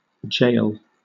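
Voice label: "jail", British English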